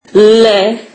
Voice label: turkish alphabet l